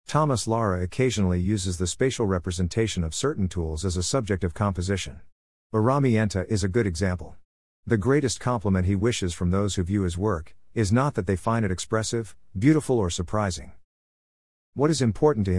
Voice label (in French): anglais